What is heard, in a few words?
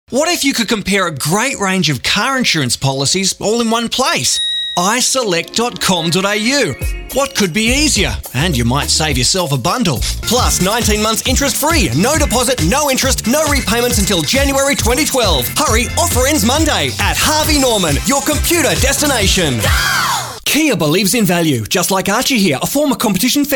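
English Australian commercial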